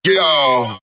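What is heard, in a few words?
"yeah" distorted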